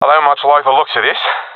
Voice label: scared; Counter Strike Model Pack; CS:GO

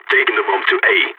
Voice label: going to plant bomb, balkan

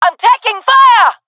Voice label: taking fire, jungle fem epic; Counter Strike Model Pack; CS:GO

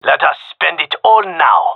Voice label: gendermerie male; request spend; Counter Strike Model Pack; CS:GO

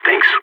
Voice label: thanks, agents, balkan

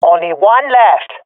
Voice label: enemies left one, swat fem; vo; Counter Strike Model Pack; CS:GO

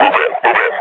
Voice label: player is hurt, grunt; Half Life Model Pack

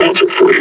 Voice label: announce attack grenade

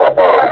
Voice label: "above", suppressor; Half Life Alyx; combine

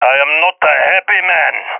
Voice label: scared; balkan epic

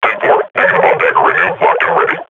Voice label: combat idle, grunt; Half Life Model Pack

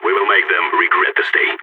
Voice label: radio lock n load, balkan; vo

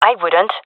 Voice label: disagree, gendarmerie fem epic; vo; agents

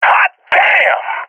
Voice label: cheer; Source Model Packs; Counter Strike Model Pack; CS2

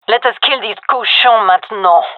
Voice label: round start, gendermerie fem; vo

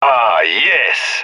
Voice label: cheer; agents; leet epic

